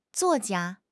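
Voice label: "zuo4 jia1", Mandarin Chinese; HSK4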